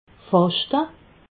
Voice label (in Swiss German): "faschte", alsace